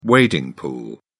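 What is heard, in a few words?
"wading pool", British English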